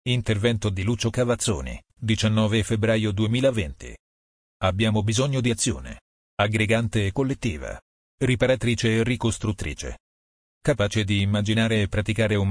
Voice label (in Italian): Italiano